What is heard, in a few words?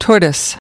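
"tortoise", US English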